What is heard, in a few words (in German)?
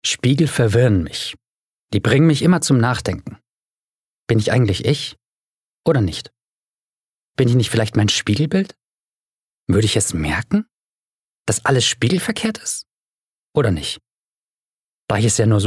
Innerer Monolog